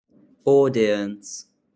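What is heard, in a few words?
"audience", British English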